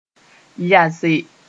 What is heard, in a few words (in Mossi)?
"yase", moore